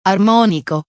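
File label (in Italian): "armonico", Italian word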